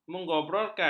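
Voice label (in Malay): "mengobrolkan", Malay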